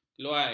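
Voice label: "keluai", Malay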